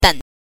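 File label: "den", Mandarin Chinese pinyin, neutral tone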